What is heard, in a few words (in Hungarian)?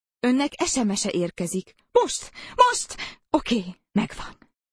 Önnek SMS-e érkezik most (nő); csengőhang